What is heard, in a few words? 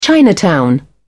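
"chinatown", English, British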